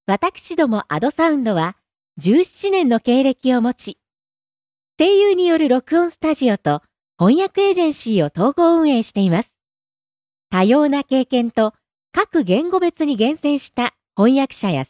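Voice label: Japanese, female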